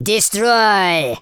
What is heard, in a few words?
fireball, Super Villain; speech